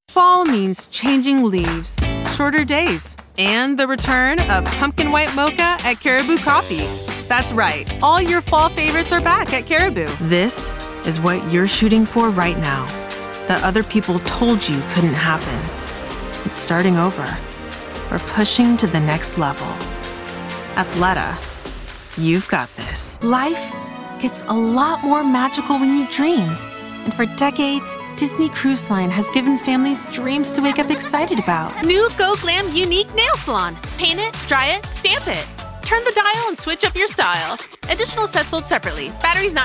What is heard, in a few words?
commercial